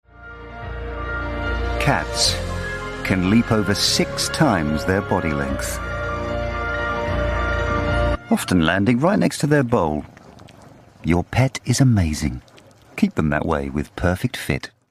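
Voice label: voice over; Perfect Fit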